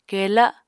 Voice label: "kela", Apatani